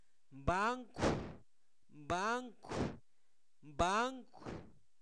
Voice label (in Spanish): banca, Zapoteco, santo domingo albarradas